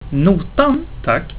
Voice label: "tack", swedish; Notan

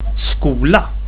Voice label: "skola", swedish

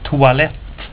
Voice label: "toalett" Swedish

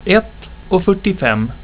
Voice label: "ett och fyrtiofem" Swedish

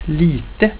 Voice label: "lite", swedish

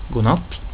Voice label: "god natt" Swedish

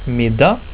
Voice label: "middag", swedish

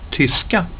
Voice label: "tyska" Swedish; word of day

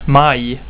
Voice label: "maj" Swedish

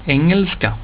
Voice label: "engelska" Swedish

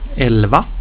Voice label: "elva", swedish; word of day

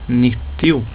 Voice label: "nittio", swedish

